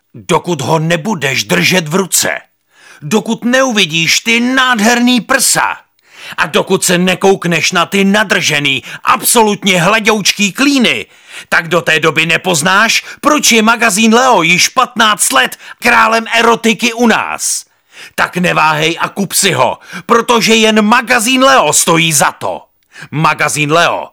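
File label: Male Czech commercial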